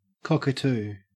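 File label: "cockatoo", Australian English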